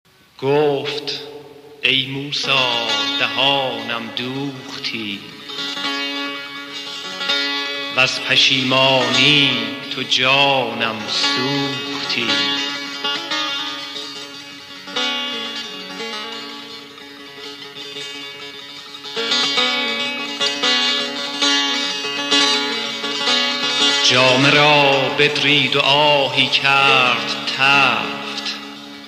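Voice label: declamation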